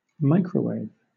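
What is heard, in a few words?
"microwave", British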